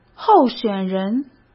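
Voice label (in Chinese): "候选人", Mandarin Chinese; chinese flashcards; hsk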